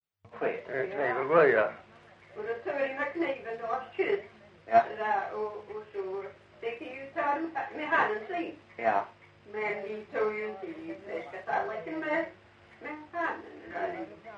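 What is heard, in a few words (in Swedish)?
Lilla Harrie Skåne, dialekter; Folkminnen; Svenska sagor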